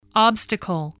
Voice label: "obstacle", voice, spelling